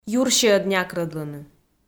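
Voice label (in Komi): "юрсиӧд някрӧдлыны" Komi, female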